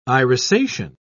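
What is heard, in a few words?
"irisation", English, British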